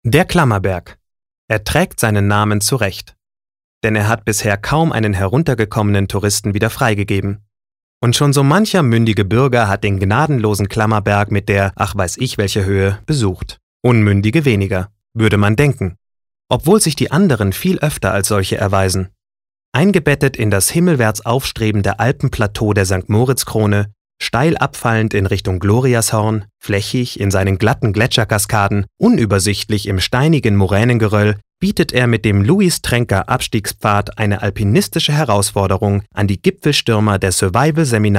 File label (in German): Kommentar Neutral